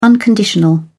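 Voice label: "unconditional", British English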